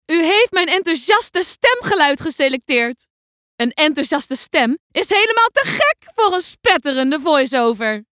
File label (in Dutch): Enthousiast